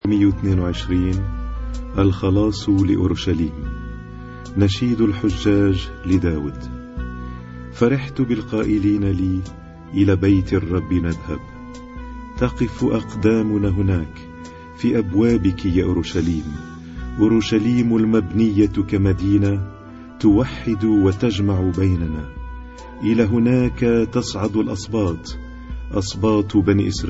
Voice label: Arabic Psalm Zaboor